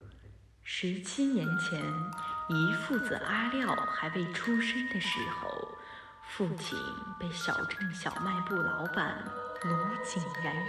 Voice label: 密室恐怖配音复仇

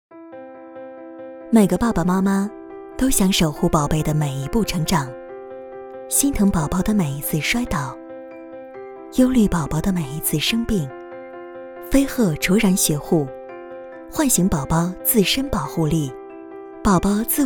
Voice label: 品牌广告; 飞鹤茁然学护

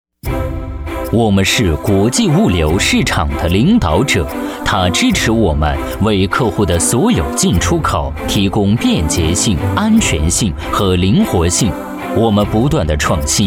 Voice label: 专题片大气; 物流市场

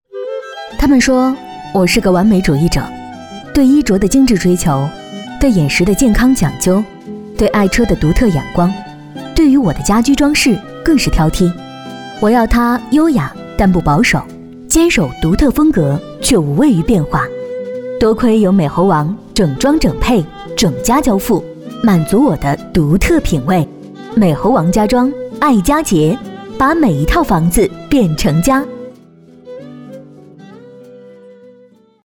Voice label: 质感微冷广告; 美猴王家装节

